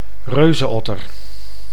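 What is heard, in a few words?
"reuzenotter", Dutch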